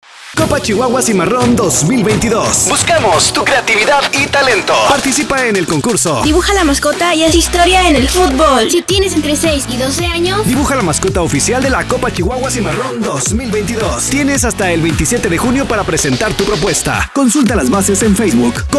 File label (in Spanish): SPOT; MASCOTA; concurso dibuja a la mascota y haz historia en el futbol